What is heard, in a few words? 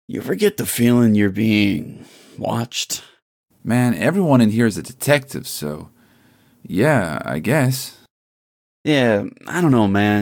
funny convo; Pitch Black - A Detective's Demise